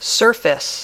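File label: "surface", English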